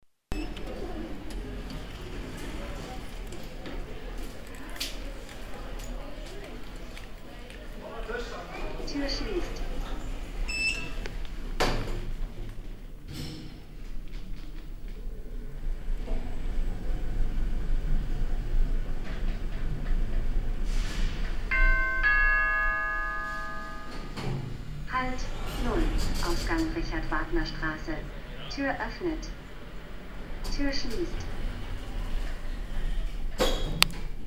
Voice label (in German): Lift falsche Ansage; Lissagasse